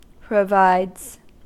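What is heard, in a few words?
"provides", American English; pronunciations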